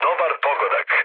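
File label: Serbian player killed enemy mood low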